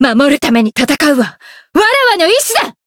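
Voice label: variable chant, Japanese; hiina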